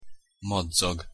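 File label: "madzag", Hungarian pronunciation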